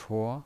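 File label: "chuo", Mandarin Chinese, second tone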